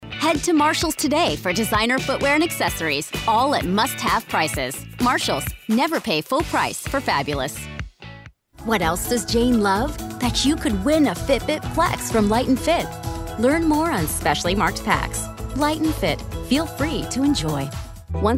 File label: commercial